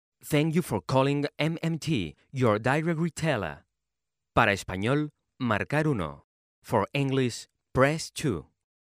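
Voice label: Spanish English, male, IVR, accent of spain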